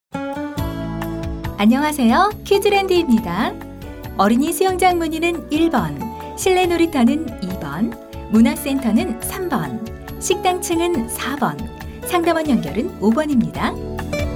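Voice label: Korean, female; 여성 (Female); ARS; 광고; 나레이션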